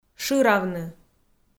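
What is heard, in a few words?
"шыравны", Komi, female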